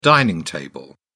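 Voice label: "dining table", British English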